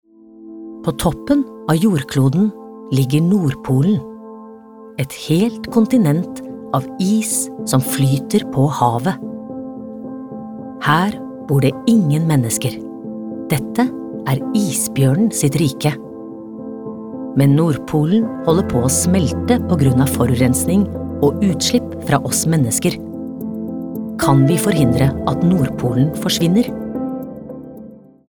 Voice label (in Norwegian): no dokumentar